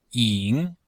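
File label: "ying3", Chinese; Cours de Chinois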